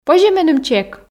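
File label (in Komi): позьӧ меным чек, Komi, female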